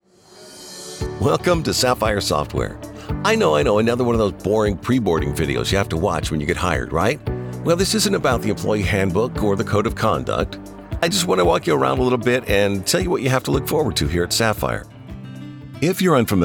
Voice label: Corporate